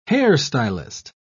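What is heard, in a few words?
"hairstylist", British English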